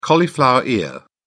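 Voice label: "cauliflower ear", British English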